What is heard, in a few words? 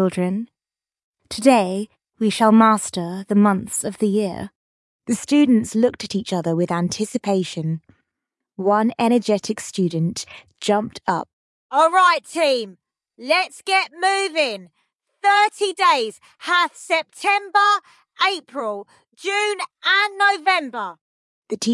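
female British story; Thirty Days Hath September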